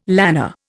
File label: "lanner", Indian